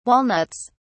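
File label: "walnuts", English, British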